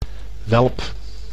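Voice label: "welp", Dutch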